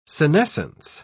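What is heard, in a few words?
"senescence", English, British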